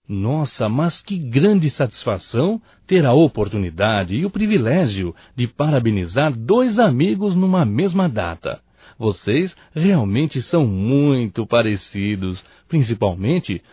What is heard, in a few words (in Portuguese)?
Aniversário Gêmeos Masculino; Aniversarios; Telemensagens 2017